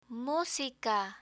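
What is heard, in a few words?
"musica", Spanish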